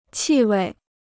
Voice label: "气味", Chinese

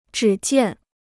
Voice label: "只见", Chinese

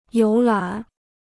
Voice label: "游览", Chinese

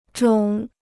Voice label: "肿", Chinese

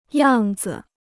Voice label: "样子", Chinese

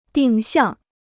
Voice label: "定向", Chinese

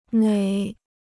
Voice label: "哪", Chinese

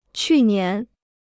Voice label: "去年", Chinese

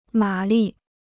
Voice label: "马力", Chinese

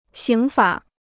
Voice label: "刑法", Chinese

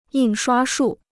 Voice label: "印刷术", Chinese

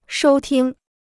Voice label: "收听", Chinese

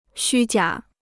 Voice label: "虚假", Chinese

